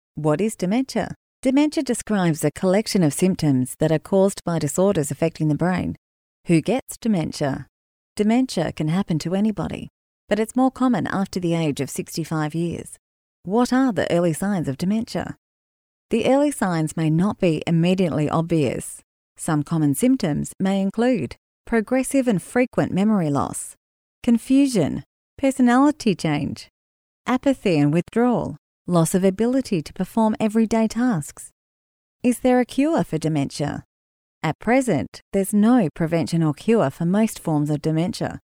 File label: female australian voice over narrative